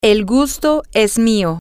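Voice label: the pleasure is mine, Spanish, female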